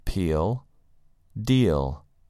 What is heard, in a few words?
"peel" "deal"